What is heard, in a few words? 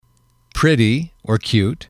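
"pretty cute", English, male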